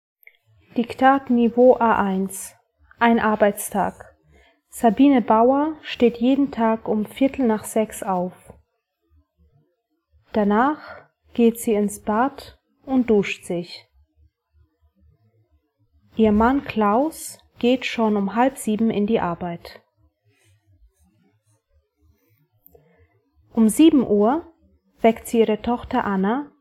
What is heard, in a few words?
German dictation level A1